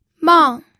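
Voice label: "mang", fourth tone, pinyin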